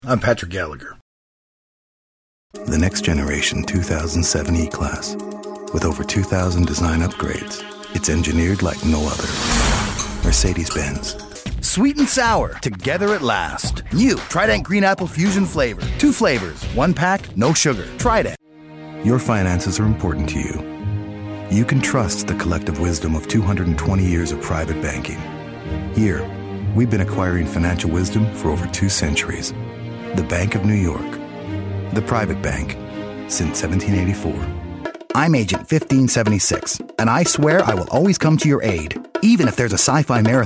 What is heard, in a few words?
commercial; Innovative LA